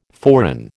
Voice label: "forerun", American